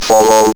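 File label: ROBVOX, robot voice; E-Lab; X Static Goldmine 1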